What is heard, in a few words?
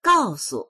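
"gao4su", Mandarin Chinese; 告诉; 伝える、話す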